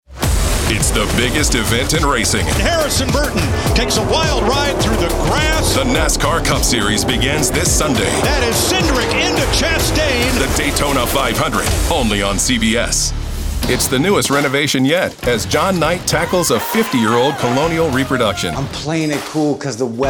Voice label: Promo, Voiceover Commercial Promo; Washington, D.C. area